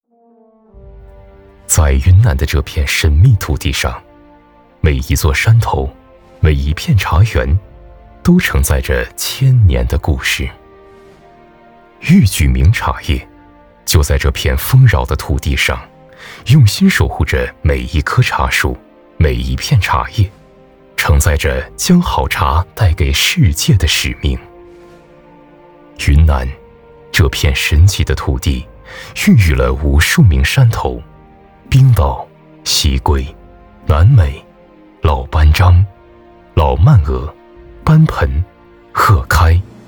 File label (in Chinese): 大气宣传茶